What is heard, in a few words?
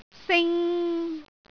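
"sing3", Cantonese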